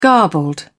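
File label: "garbled", British English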